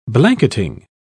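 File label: "blanketing", British English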